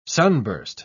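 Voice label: "sunburst", English, uk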